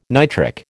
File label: "nitric", American